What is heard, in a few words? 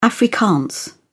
"afrikaans", uk